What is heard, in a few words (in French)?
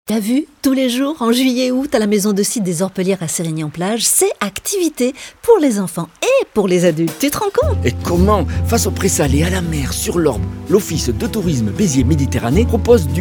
spot; beziers orpellieres